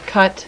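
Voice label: "cut", female, voice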